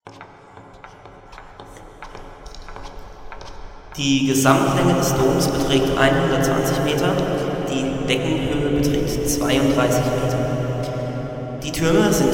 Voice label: Guided Tour Through German Cathedral

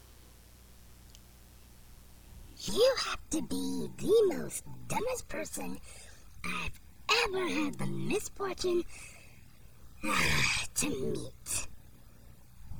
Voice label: Rude Alien

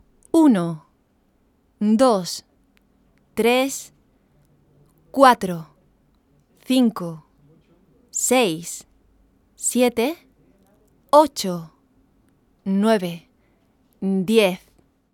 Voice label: Numbers In Spanish

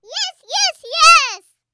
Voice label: Small Girl Shouting Yes Yes Yes

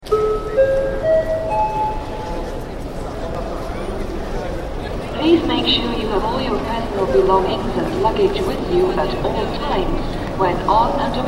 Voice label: Securtiy Announcment

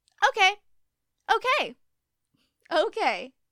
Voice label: Ok Neutral Casual

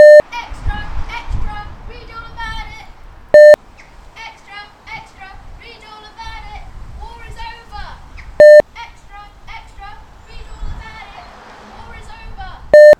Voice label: Paperboy Voiceover Extra Extra Read All About It

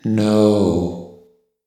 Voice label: Low Calm Voice "No"

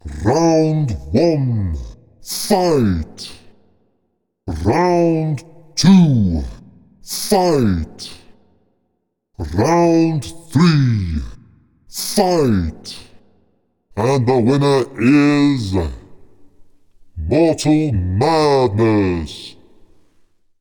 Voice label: Deep Low Male Voice Round 1 Fight

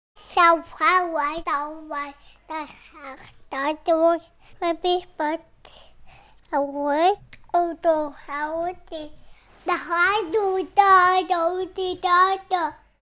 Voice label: Little Toddler Talking

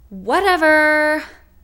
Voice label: Female whatever

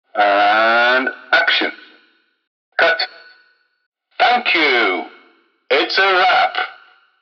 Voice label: Film Director Impersonation; Arytopia

